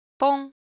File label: Japanese Sound, Ideo Phones